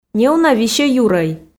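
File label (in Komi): неуна висьӧ юрӧй, Komi, female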